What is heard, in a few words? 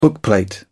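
"bookplate", British English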